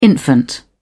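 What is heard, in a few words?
"infant", British English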